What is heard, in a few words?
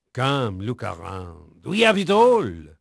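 French male, voice; Pirates Of The Caribbean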